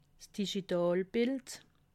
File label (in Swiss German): "digitalbild", alsace